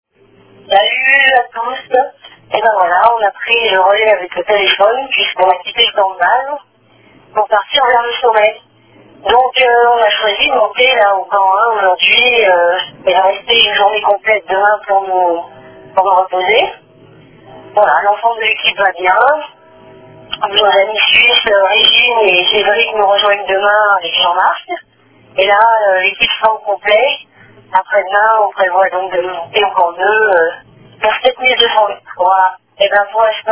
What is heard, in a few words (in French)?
Appel telephonique